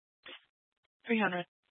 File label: Fire Department Dispatch, scanner; Grand Junction